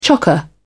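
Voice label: "chocker", British English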